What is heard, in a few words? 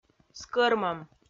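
"скӧрмӧм", Komi-Zyrian, female; kpv audio dict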